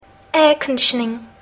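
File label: "air conditioning", English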